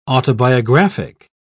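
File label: "autobiographic", British English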